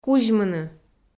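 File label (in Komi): "кузьмыны", Komi-Zyrian, female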